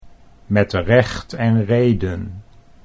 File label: "met rechten reden", Dutch